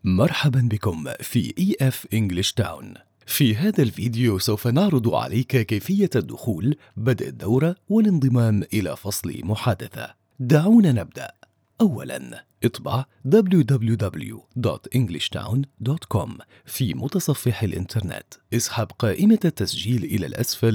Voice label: Explainer Video Voice Overs